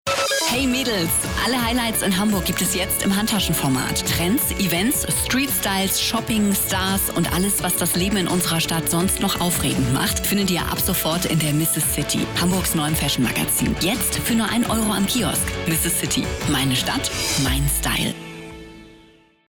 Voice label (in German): Spot; MrsCity; LOFT VOICES